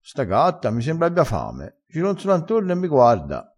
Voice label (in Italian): "sta", crespinese